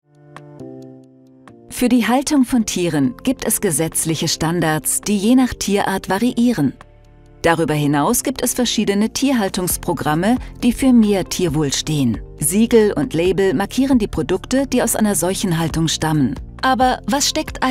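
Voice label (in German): Erklärfilm Haltungsform, Sprecherin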